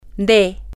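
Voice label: of from, spanish, female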